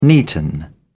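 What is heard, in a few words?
"neaten", British English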